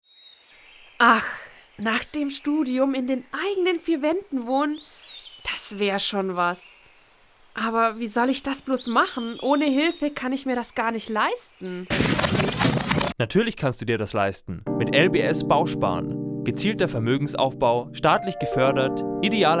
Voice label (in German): Werbung Sparkasse